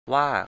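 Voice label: "ว่า" Thai, male; 'thus'; wâ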